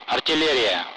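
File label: Speech Sound Russian Common Allied Flight; target-artillery; DCS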